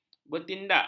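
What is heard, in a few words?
"bertindak", Malay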